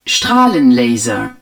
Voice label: beam laser, german

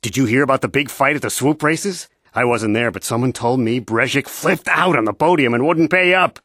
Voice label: male

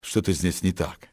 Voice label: something not right, russian soldier; XBOX

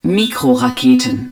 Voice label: hud micro missiles, german

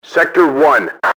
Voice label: sector 1, enemy english team orders; XBOX

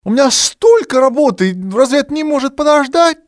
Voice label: i have work, male; Soldier Of Fortune II Double Helix; XBOX; civ

